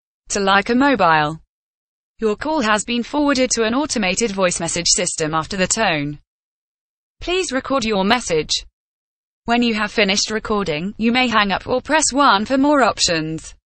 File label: female, UK